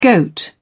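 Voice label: "goat", British English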